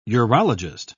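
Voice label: "urologist", British English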